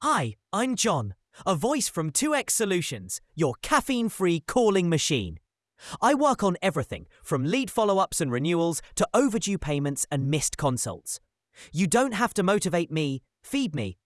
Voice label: ballad; try the new 2 x voices using a speech to speech model